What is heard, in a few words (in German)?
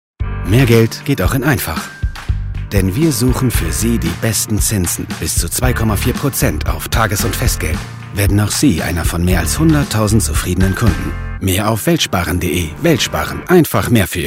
TV SPOT